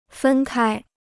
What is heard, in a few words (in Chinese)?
"分开", Chinese